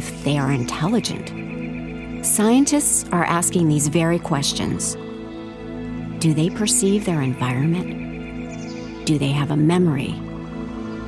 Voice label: Plants Documentary